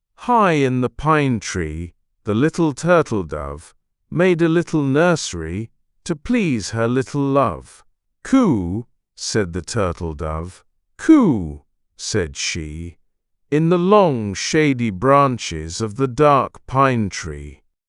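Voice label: male British, classic rhymes; High in the Pine Tree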